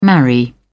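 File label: "marry", British English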